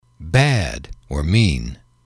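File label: "bad mean", male, English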